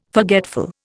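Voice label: "forgetful", Indian English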